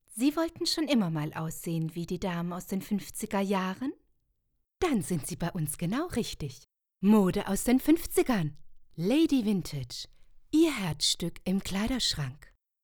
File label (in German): Werbung